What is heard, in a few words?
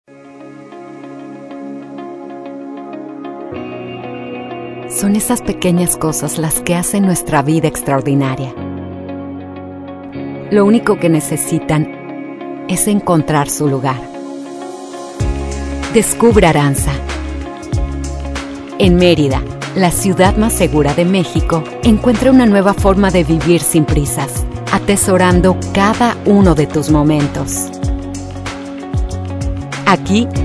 spanish mexican corporate videos